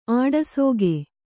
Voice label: "ಆಡಸೋಗೆ", Kannada

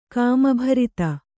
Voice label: "ಕಾಮಭರಿತ", Kannada

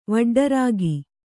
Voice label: "ವಡ್ಡರಾಗಿ", Kannada

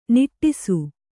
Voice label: "ನಟಿಸು", Kannada

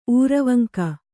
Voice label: "ಊರವಂಕ", Kannada

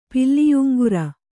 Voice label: "ಪಿಲ್ಲಿಯುಂಗುರ", Kannada

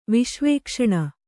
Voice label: "ವಿಶ್ವೇಕ್ಷಣ", Kannada

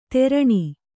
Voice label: "ತೆರಣಿ", Kannada

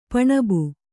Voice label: "ಪಾಣ್ಬ", Kannada; paṇabu; noun; a small bridge

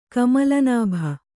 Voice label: "ಕಮಲನಾಭ", Kannada

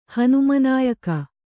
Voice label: "ಹನುಮನಾಯಕ", Kannada

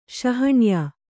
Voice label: "ಶಾಣೆಯ", Kannada

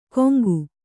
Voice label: "ಕೊಂಗು", Kannada

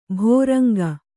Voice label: "ಭೃಂಗಿ", kannada

